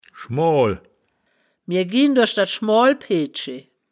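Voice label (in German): "schmoal", wittlicher woerterbuch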